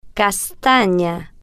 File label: "castana", spanish